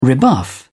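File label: "rebuff", British English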